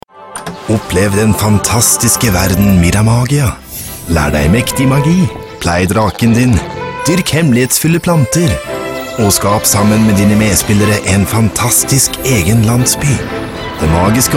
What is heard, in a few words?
Norwegian commercial; Deutschsprecher aus Berlin